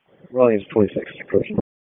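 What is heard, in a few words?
Public Safety Tactical, p25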